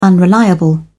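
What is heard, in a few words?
"unreliable", British English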